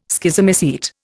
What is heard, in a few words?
"Schizomycete", Indian